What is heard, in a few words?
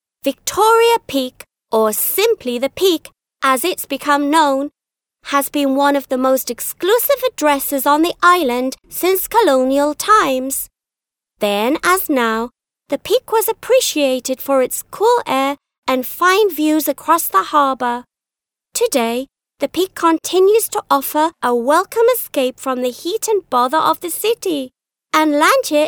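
British female corporate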